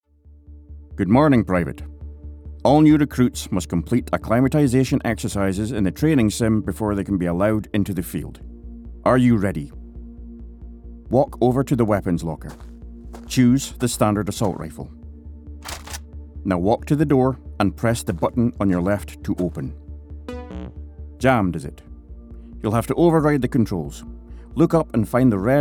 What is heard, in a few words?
NPC sergeant instructor; Video Games